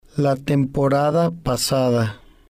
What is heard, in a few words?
"la Temporada Pasada", spanish